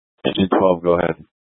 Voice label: Fire Dispatch